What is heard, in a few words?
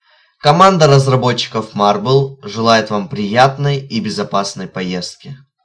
Russian